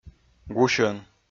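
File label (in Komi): "гусьӧн", Komi, male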